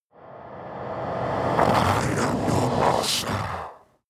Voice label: demon dark voice reverse i am the master

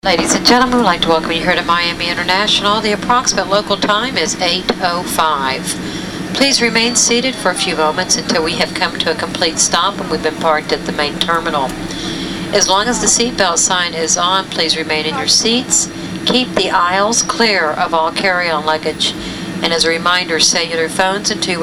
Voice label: airplane english

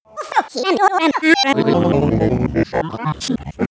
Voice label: glitch talk fx

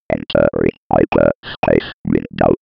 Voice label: computer voice

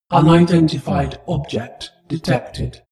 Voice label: spaceship female status report

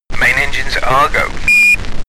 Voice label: astronaut chatter preparing

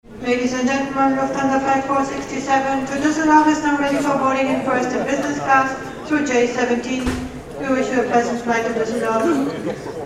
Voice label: airport english german to duesseldorf

